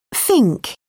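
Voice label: "fink", British English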